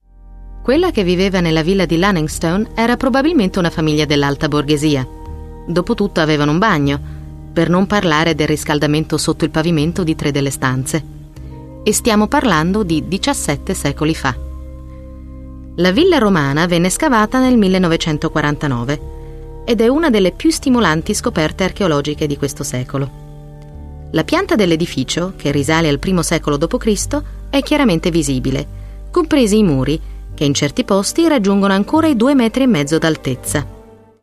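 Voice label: Italian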